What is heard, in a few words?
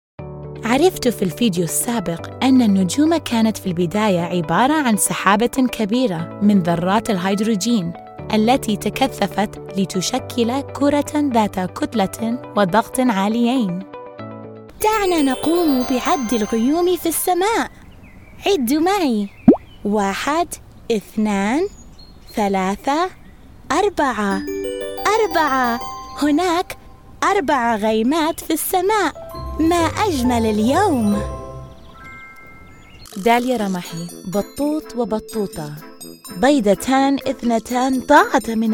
arabic k-12 e-learning